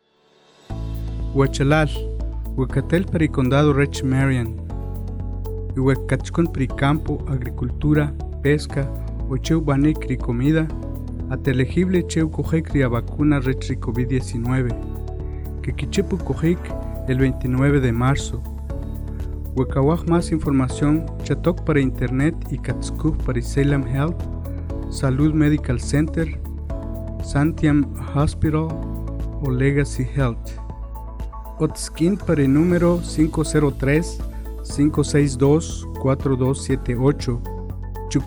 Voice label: K'iche'; Marion; Vaccine Radio Spots; covid19